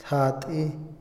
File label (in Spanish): tocar, Otomí, hombre; Percepción; INALI